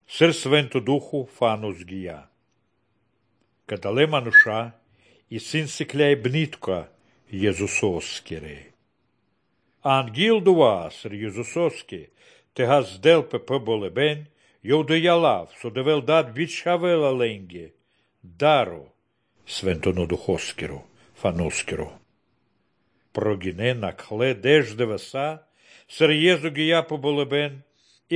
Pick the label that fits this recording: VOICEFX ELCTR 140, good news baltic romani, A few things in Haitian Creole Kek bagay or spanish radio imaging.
good news baltic romani